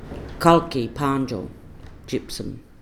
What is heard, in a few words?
"kalki padyil" gypsum